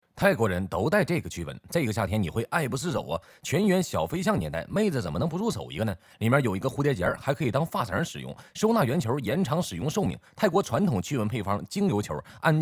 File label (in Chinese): 东北方言 男 方言 聊天语感东北话; 方言配音